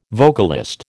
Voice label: "vocalist", American